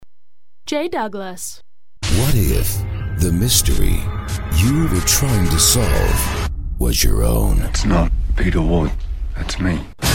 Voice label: Trailer; Reel